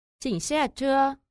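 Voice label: "qing xia che", Chinese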